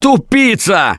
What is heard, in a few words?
reaction negative, russian; Gambler